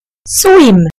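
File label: "swim", British English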